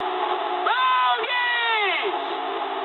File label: eng enemy detected mood med, speech eng; War Thunder